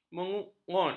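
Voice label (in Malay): "mengut", Malay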